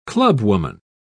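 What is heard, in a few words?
"clubwoman", English, British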